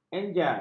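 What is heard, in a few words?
"enjal", Malay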